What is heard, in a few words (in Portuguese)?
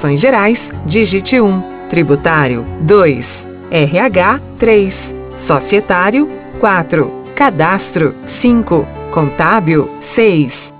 Espera Contabilidade, esperas telefonicas